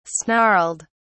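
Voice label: "snarled", British English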